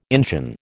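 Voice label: "Injun", American English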